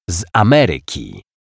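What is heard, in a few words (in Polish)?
"z Ameryki", Polish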